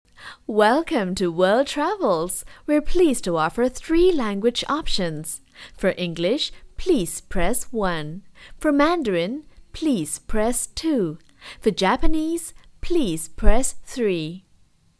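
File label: english chinese female voice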